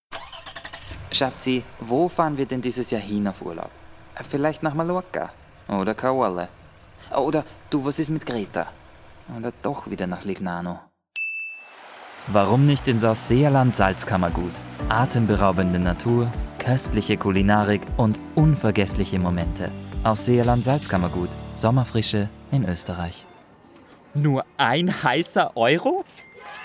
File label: AUSTRIAN Voice